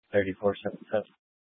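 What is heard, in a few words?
Police Dispatch, Scanner audio recording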